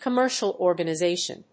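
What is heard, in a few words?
"commercial organization", English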